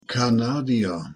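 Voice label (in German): "kanadier", German; pronunciation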